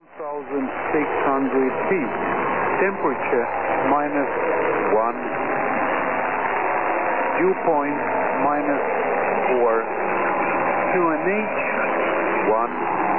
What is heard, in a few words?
Volmet 4645 kHz; Tallin Volmet